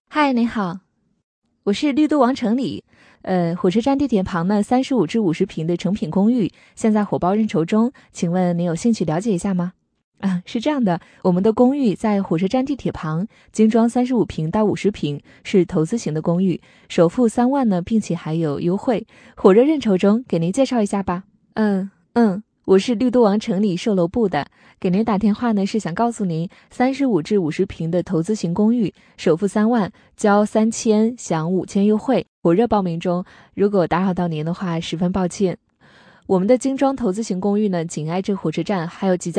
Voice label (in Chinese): 女 电话销售语音AI